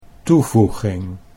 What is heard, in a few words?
"toevoeging", Dutch